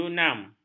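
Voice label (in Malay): "junam", Malay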